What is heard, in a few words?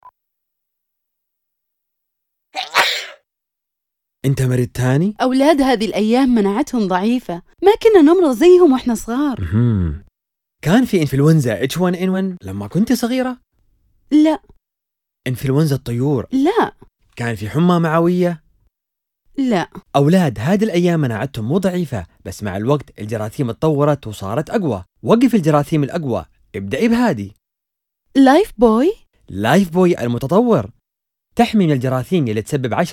Lifebuoy, Saudi